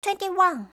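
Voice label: "twenty-one", English; eng suuji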